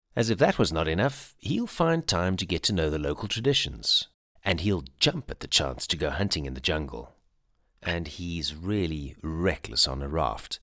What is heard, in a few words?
ENGLISH ironic